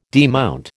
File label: "demount", American